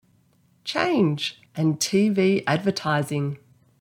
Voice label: Change and TV advertising, en au; cinema